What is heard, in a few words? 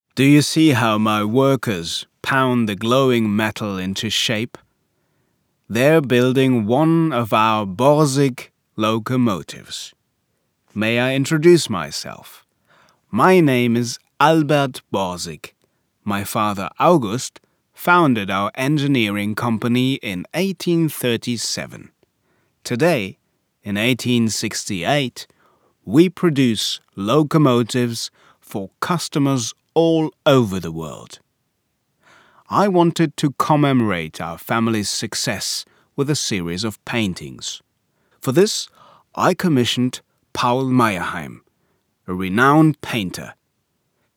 English Audioguide; Märkisches-Museum